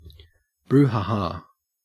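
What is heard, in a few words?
"brouhaha", Australian English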